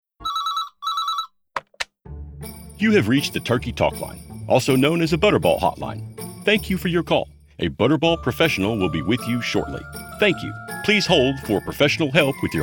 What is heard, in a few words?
Telephony IVR; Turkey Talk